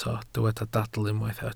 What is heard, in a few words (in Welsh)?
"dadl" Welsh